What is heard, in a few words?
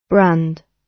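"brand", British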